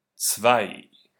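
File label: "Zwei", German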